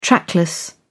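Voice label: "trackless", British English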